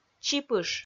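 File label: "чипыш", Komi, female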